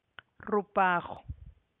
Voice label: "rizune dang", Teotitlán del Valle Zapotec; underskirt